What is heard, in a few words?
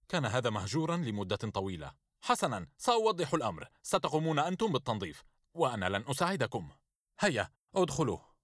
Drama